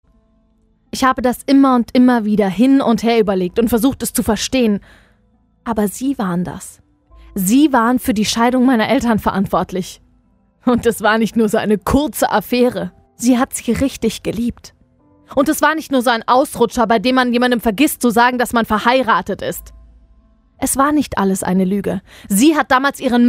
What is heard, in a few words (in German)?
Monolog; Monolog 2 mit Bett